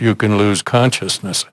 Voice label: LoFi Phrases, LoFi Vocals; Vengeance Samples